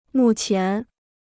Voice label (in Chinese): "目前", Chinese